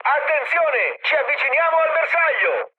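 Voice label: Italian near waypoint mood high, speech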